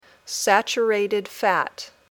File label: "saturated fat", English